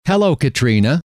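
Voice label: hello, male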